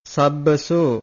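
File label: "sabbaso", Pali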